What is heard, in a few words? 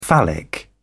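"phallic", English, British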